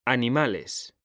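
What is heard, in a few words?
"animales", Spanish, male; mekanta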